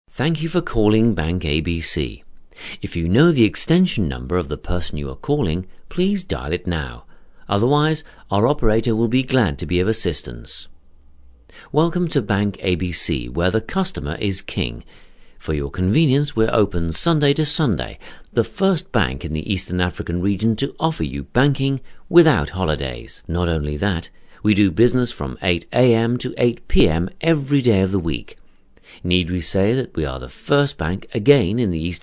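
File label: English British male voice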